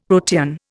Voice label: "protean", Indian; Dictionary